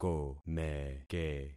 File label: "komeke", concat syllables; Affixing experiment